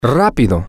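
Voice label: fast quick, spanish, male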